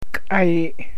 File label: Willow, Gwich'in; K'aii